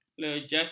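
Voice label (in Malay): "lejasan", Malay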